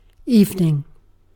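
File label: "evening", British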